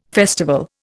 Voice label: "festival", Indian